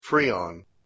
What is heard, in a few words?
"frijon", Proto-Germanic